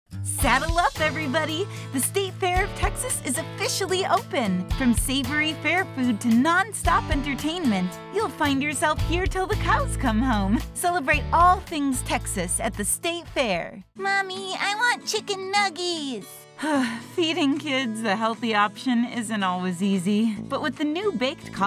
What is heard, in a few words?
Commercial